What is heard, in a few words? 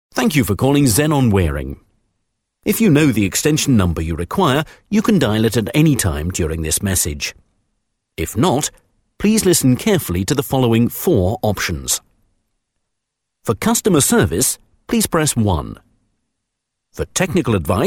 Phone, voiceover